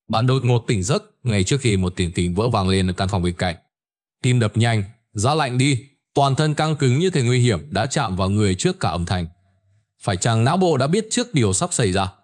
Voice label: narration; Vi Sao Nao Bo Danh Thuc Ban Truoc Nguy Hiem; StickerMan